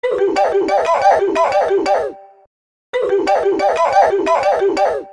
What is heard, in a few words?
OOF, Apple; ROBLOX